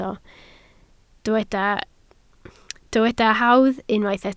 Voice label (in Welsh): "hawdd" Welsh